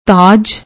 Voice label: "taaj", Punjabi; ਤਾਜ